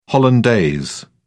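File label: "hollandaise", British English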